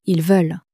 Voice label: "ils veulent", French